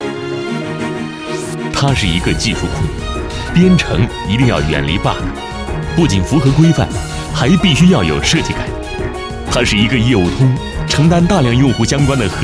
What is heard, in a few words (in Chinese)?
专题, 人物, 公司人物宣传, 轻快